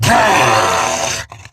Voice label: Attack Grineer Wolf, en; Battle Barks